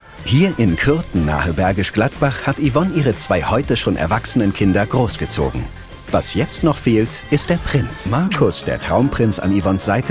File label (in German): Kommentar; RTL2; Deine Hochzeit Live